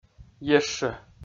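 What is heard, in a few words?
"ещӧ", Komi, male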